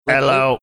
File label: saying ello robotic